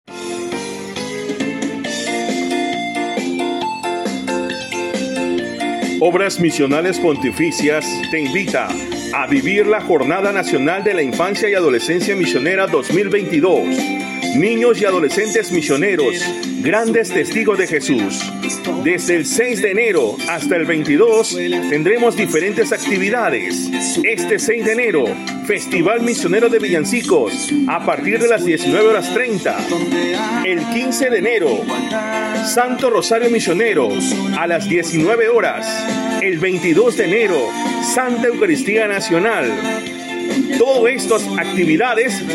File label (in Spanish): spot